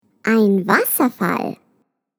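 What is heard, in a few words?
WATERFALL, German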